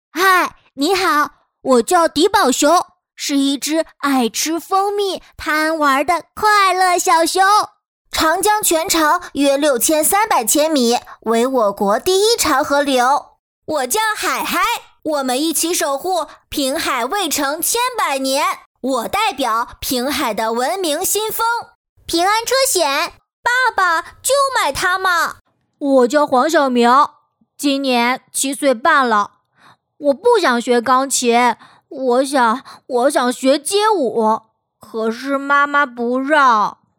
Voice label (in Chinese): 男童